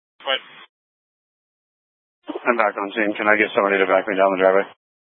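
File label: Incident Tac 2, Scanner audio recording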